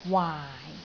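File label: "waai4", Cantonese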